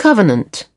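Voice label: "covenant", British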